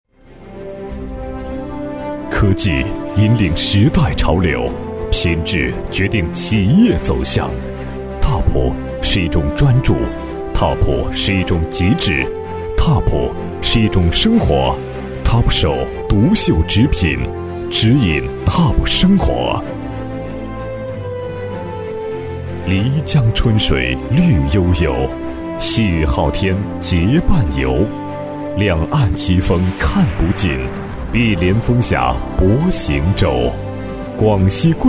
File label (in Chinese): 企业专题 男 大气激情; 纸品有限公司; 独秀纸品有限公司